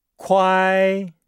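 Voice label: "kuai", Chinese; Nihao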